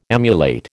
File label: "emulate", American